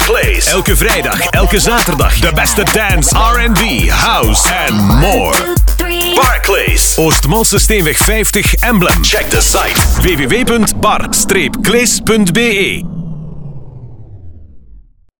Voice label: party commercial; bar cles 25 en 26 12